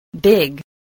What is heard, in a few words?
"big", English